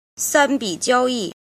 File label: "jin", Chinese